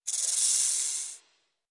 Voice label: Snake Voice

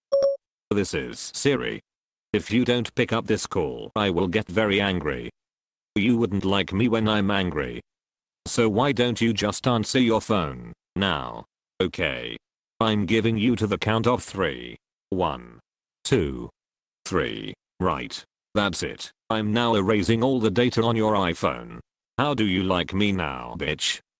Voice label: SIRI is Angry

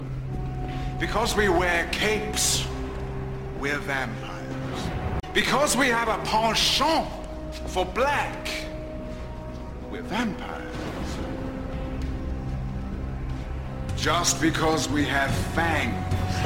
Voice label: Poetry; Vampire

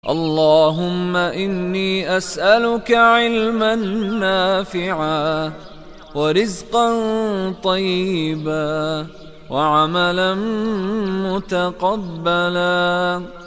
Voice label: Doaa Islamic

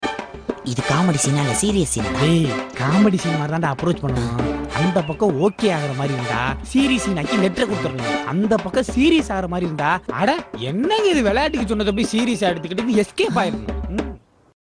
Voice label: College Comedy

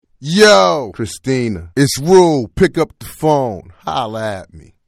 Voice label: Phone

